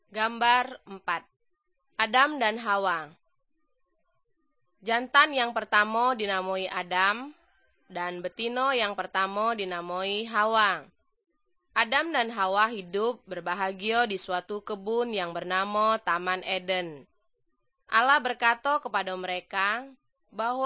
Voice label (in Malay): good news malay jambi